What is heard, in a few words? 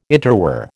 "interwar", American